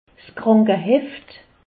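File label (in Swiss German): "krankeheft", Alsace, Haut Rhin; medecine; carnet de santé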